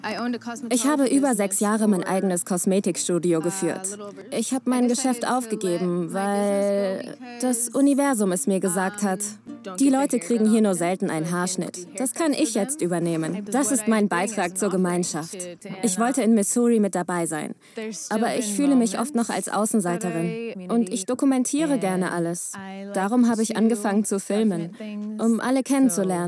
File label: Voice Over; The Garden